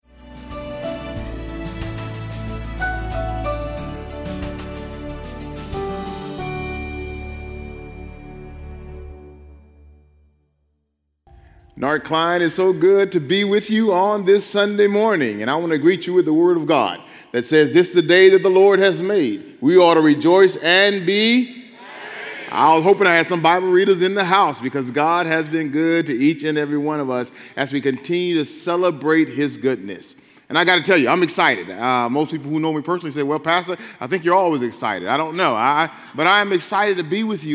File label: Sermon English